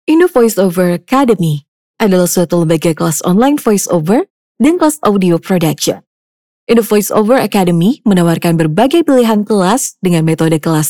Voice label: BAHASA FORMAL

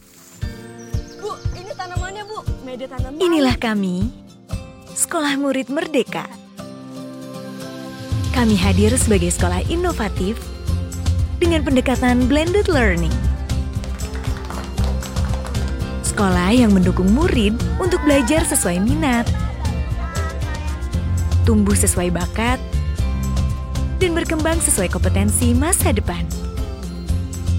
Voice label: FORMAL INDONESIA

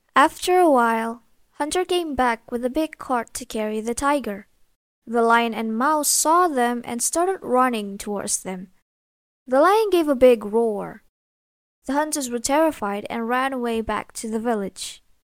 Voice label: Karakter English

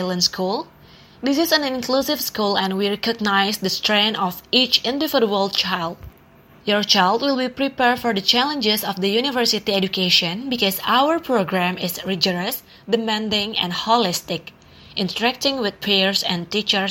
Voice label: ENGLISH CASUAL

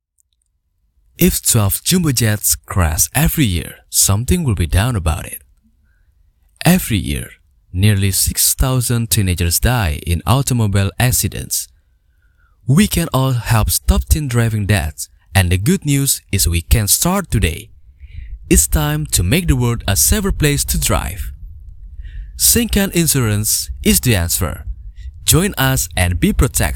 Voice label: Formal English

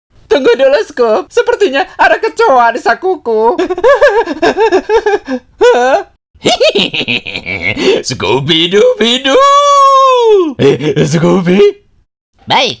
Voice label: Character sample

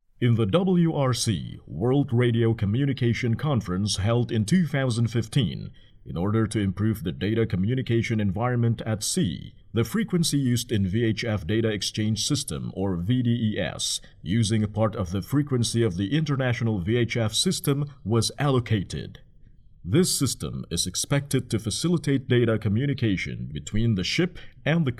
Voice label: English formal

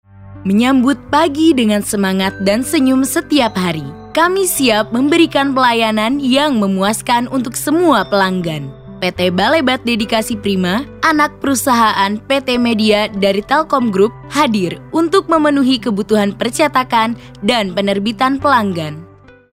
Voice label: INDONESIA FORMAL

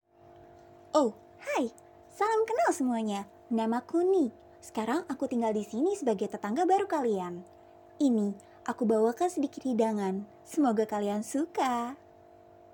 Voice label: INDONESIA CHARACTER

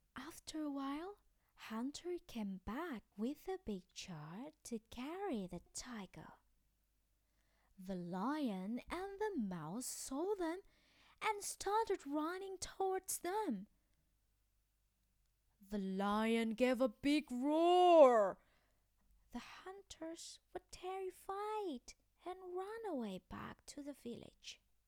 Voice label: Karakter English

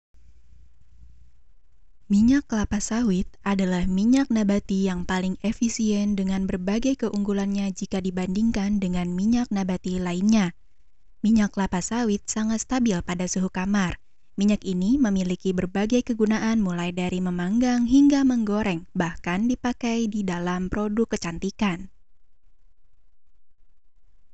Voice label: Formal Indonesia

